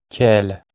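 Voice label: "cel", Proto-Indo-European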